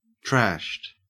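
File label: "trashed", Australian English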